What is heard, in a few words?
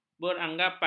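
"beranggapan", Malay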